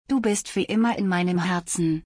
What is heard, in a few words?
You are always in my heart in German